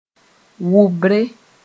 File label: "wʋbre", Moore; vomiting